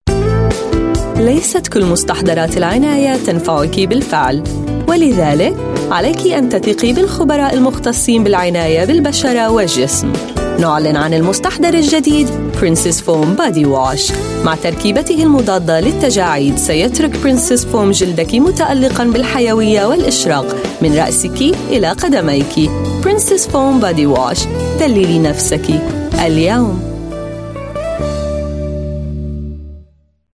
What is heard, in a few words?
Arabic TVC